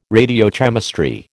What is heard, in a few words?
"radiochemistry", American